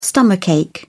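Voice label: "stomach ache", English, British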